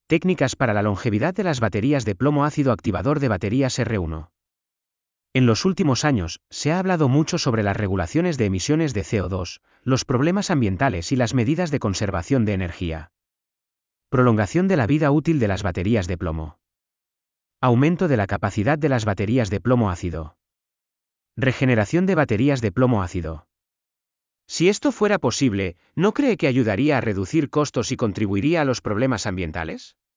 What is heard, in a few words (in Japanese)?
ナレーター スペイン語